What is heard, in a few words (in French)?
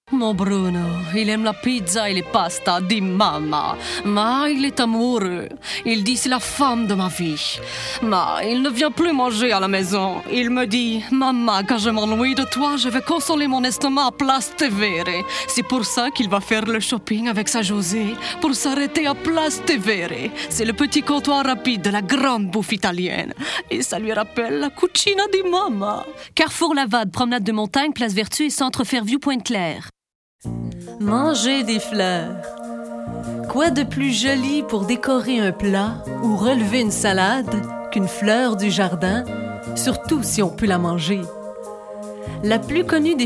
publicite et narration; Voix publicitaire, ritournelle, narration